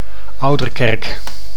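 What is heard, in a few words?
"Ouderkerk", Dutch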